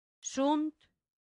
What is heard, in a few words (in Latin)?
"sunt", latin; santillana